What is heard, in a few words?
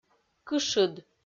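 "кышыд", Komi, female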